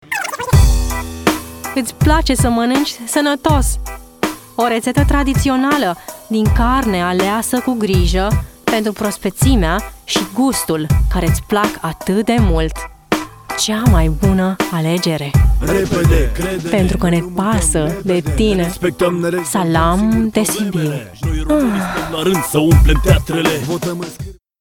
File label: romanian